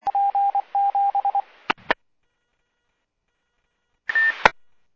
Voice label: Medevac, scanner; NativeAirAmb